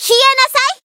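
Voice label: finish, Japanese; suzette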